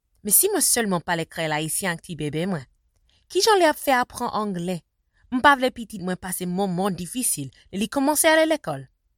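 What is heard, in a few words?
Haitian testimonial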